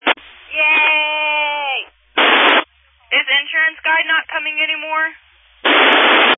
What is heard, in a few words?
Family Radio Service (FRS)